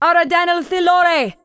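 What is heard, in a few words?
warcry, English; 暗夜精灵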